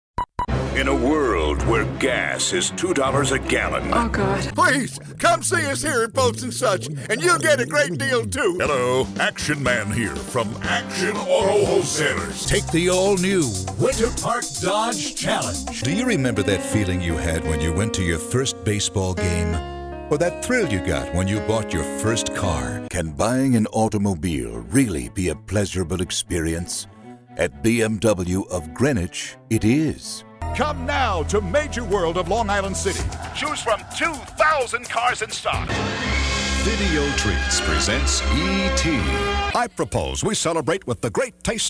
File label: Spot